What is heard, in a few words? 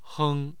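"heng", Mandarin Chinese, tone 1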